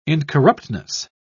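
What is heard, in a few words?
"incorruptness", English, British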